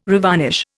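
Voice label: "revarnish", Indian English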